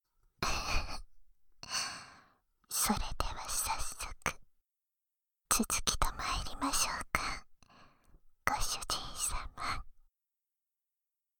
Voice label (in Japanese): 耳触手 ベロチュー, バイノーラルマイク 効果音あり